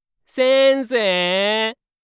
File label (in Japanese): 先生ぇ 関西; 汎用ボイス素材